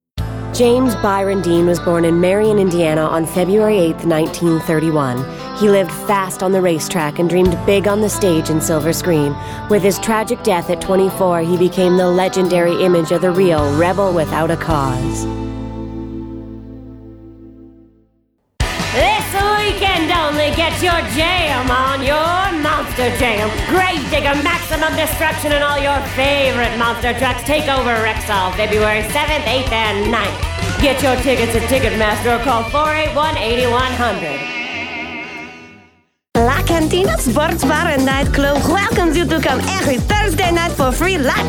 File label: Commercial Reel